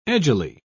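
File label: "edgily", British English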